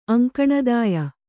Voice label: "ಅಂಕಣದಾಯ", Kannada; aŋkaṇadāya; noun